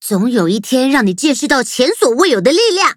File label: upgrade, zh-hant